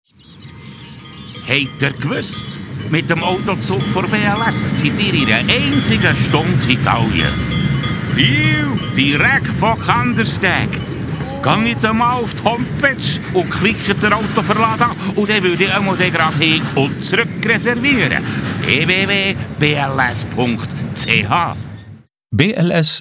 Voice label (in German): berndeutsch